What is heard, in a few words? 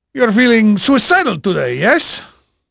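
Portuguese male, voice; Pirates Of The Caribbean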